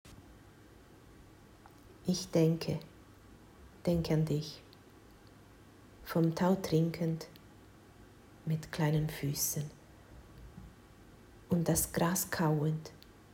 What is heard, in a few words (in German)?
Deutsch